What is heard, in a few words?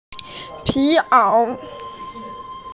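"pi2 ao3", Mandarin Chinese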